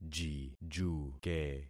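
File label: "jijuke", concatenated syllables; Affixing experiment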